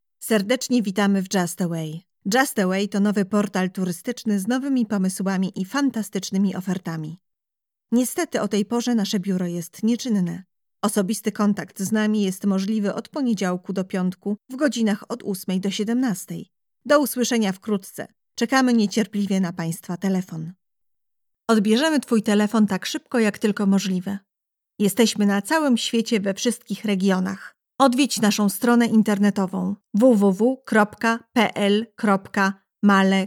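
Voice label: Polish, female, telecom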